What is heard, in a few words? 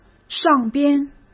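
"上边", Mandarin Chinese; hsk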